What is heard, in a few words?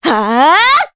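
Vox Skill, voices, Japanese; kingsraid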